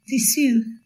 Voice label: sunday Cornish